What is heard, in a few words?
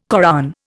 "Koran", Indian